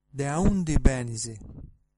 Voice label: where from, Sardinian